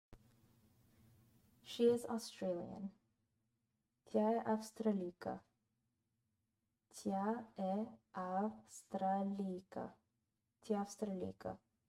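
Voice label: She is Australian, Bulgarian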